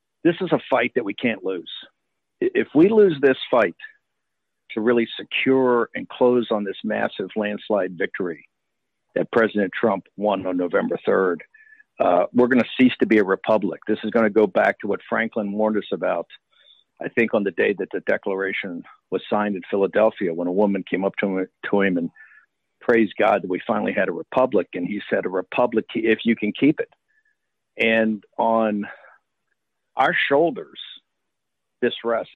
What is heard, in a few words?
prayer call; bannon